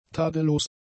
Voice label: "tadellos", German; adjectives